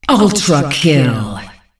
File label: fem ultra; Monster Hunt